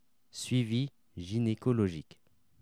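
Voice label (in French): "suivi gynecologique", French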